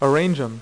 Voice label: "ARRANGE 'EM", Dealer's Speech; PowerPOKER